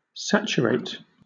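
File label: "saturate", British English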